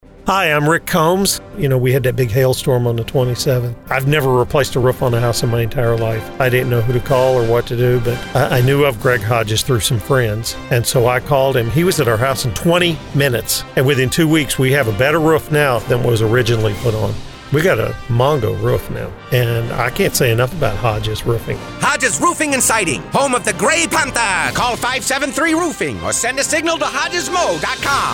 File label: Testimonial